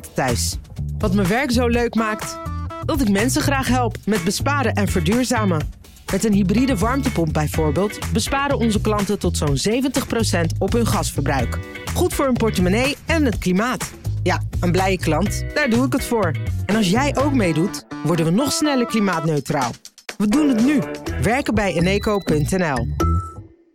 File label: Podcast Commercial; Eneco; Monteur